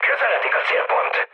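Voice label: Hungarian near waypoint mood med, speech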